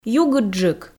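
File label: "югыдджык", Komi, female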